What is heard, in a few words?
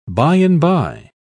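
"by-and-by", English, British English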